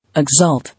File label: "Exult", English